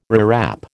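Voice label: "rewrap", American English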